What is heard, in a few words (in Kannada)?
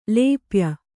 "ಲೇಪ್ಯ", Kannada